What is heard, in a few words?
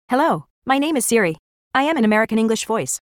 Siri voice from prefs